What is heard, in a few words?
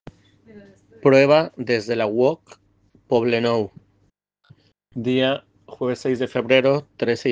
Voice message